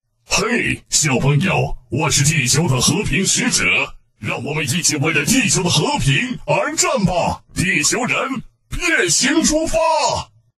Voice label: 变形金刚机械音; 动画 模仿, 男

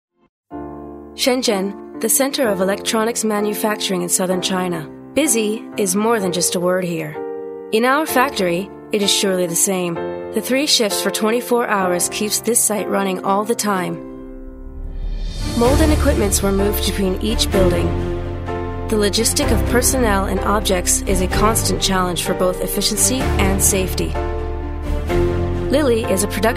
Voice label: 外籍女英 外语 美式英语 灵动

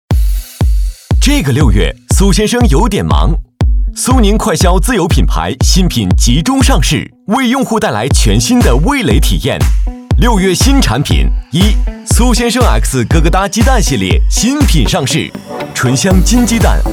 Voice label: 广告 促销 促销广告 活力, 男; 苏宁

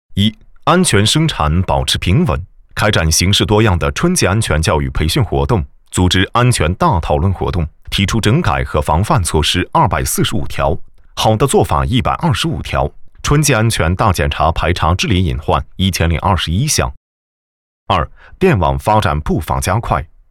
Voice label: 男国 新闻 电网春季安全检查

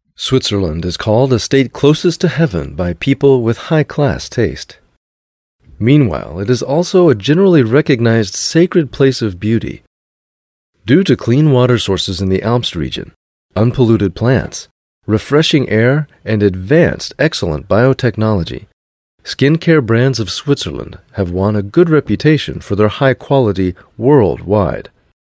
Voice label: 外籍男英 美式英语; Switzerland; 外语

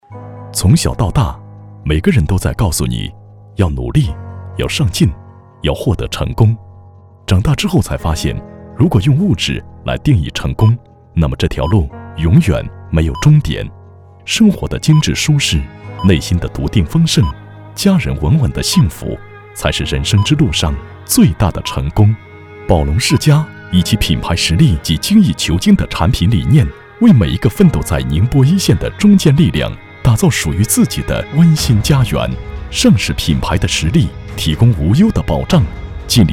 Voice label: 广告 地产 温情, 男国; 宝龙世家

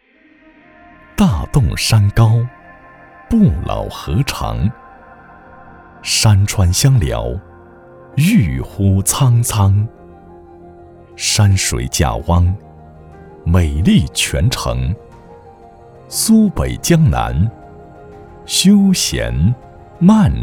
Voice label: 宣传片 旅游 生态 自然, 男国; 生态贾汪

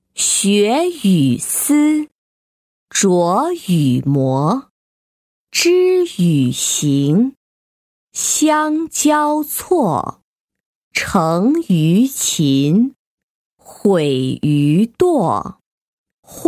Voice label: 培训课件 新三字经, 女

